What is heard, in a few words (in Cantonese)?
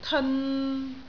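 "teon3", Cantonese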